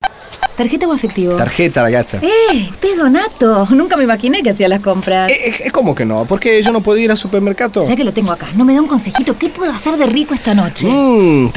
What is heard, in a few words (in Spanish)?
hablados, acting